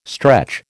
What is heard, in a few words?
"stretch", American